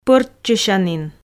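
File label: "пӧрччысянін", Komi, female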